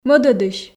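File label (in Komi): "мӧдӧдысь", Komi, female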